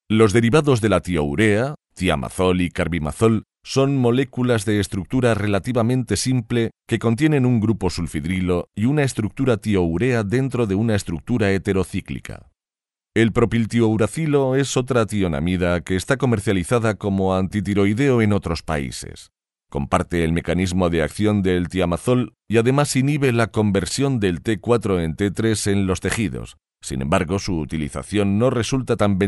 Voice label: e-learning